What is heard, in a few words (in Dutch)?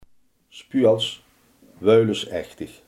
"speels", Limburgs